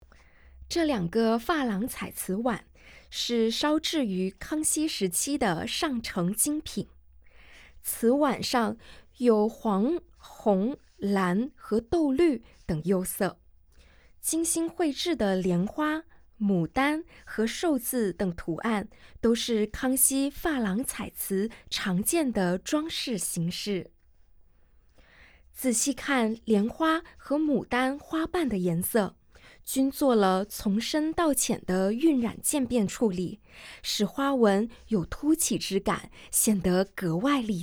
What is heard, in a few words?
Mandarin, audio guide